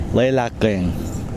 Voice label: "rainbow", Kosraean